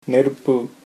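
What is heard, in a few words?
fire, tamil, male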